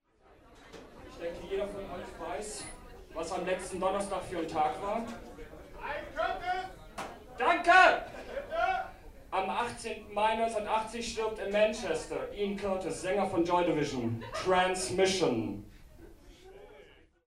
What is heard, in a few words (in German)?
ansage; midas factory